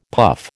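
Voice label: "puff", American English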